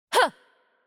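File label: Attack Light, Chinese; bloom minigame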